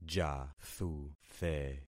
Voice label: "jathuthe", concat syllables; Affixing experiment

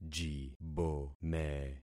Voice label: "jibome", concat syllables; Affixing experiment

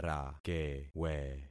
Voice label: "rakewe", concat syllables; Affixing experiment

